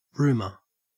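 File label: "rumour", Australian English; pronunciations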